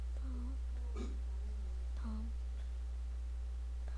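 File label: "pump", spoken word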